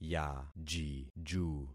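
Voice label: "yajiju", concatenated syllables; Affixing experiment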